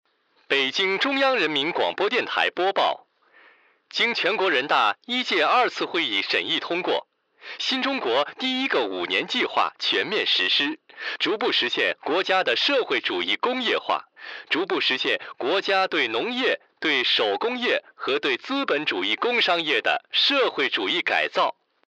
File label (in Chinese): 男 广播 50年代广播